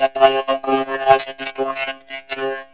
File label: distort, vocoder; PAiA vocoder